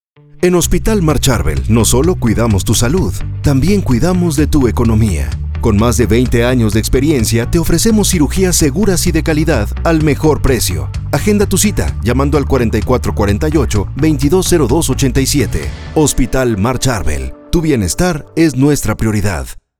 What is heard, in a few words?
RADIO